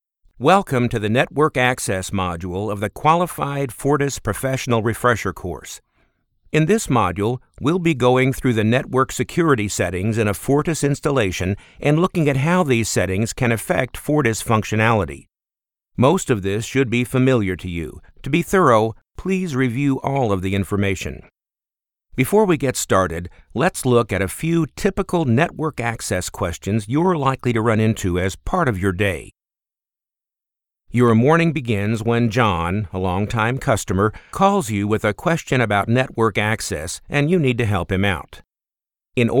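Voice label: eLearning, Voice Actor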